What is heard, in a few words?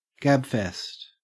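"gabfest", Australian English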